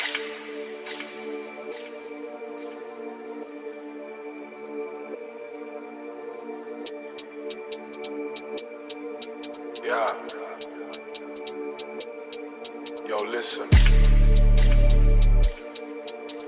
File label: The Burden Raw Patois